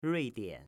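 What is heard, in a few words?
"Ruidian", Chinese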